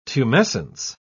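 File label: "tumescence", British English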